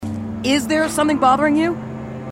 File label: female marines